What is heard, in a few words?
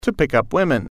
"pick up women", English